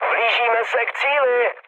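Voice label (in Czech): Czech near waypoint, mood medium; War Thunder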